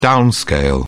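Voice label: "downscale", British English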